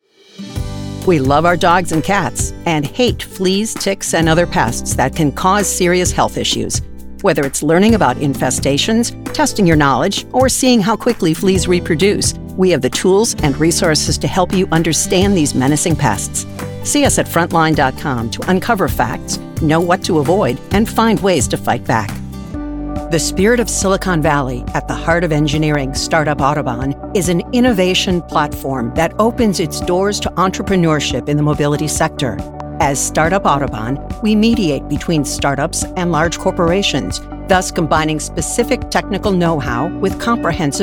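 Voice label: Corporate, Professional Female Voiceover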